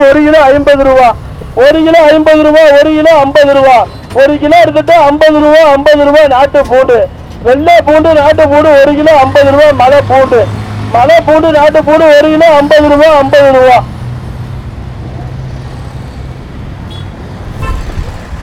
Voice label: voices garlic seller, South India street recordings